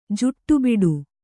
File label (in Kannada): "ಜಾಡಬೂಡ", Kannada